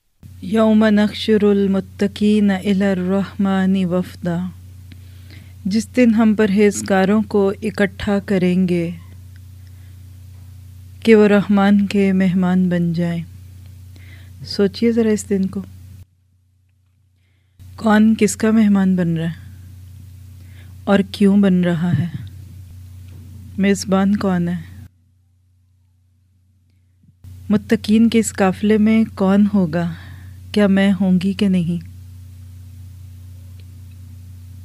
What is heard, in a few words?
mic noise in background; Maryam 85-98 detailed tafseer